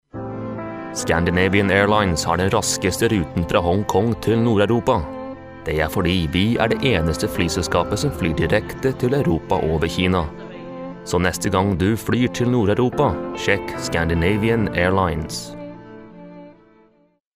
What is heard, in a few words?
male icelandic and norwegian norwegian airlines; scandinavian